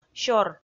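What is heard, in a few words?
"сёр", Komi, female; kpv audio dict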